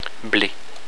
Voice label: "ble", French; blé / (wheat)